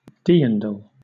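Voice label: "dirndl", British English; pronunciations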